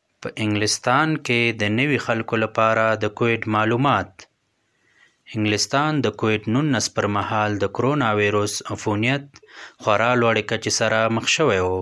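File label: Pashto, general; GoLocalise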